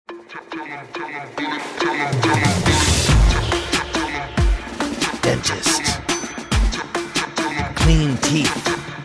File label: saying dentist clean teeth over trap music